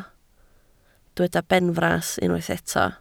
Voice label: "benfras", Welsh